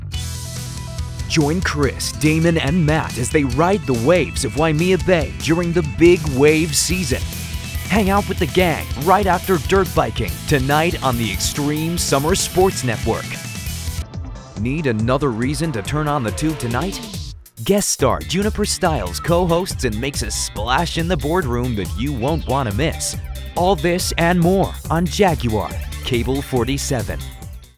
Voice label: Television US